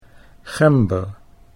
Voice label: "gember", Dutch